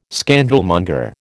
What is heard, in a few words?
"scandalmonger", American